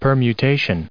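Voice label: "permutation", us English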